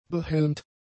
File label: "behelmt", German; adjectives